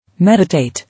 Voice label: "Meditate", English